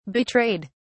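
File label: "betrayed", British English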